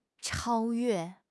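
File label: "超越", Chinese; HSK6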